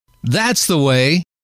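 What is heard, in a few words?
that's the way, male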